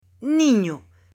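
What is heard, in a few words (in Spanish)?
"niño", Spanish, female; AppLenguaje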